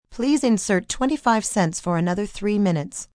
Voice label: Insert 25 Cents, Telephone operator, female, recorded; Telephone Voice